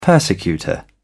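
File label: "persecutor", British English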